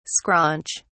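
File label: "scraunch", British English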